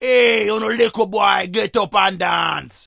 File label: enthusiastic island drunk, Rastafarian